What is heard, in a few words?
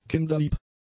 "kinderlieb", German; adjectives